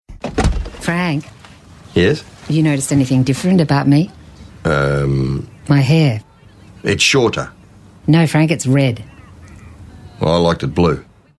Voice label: Character